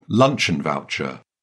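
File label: "luncheon voucher", British English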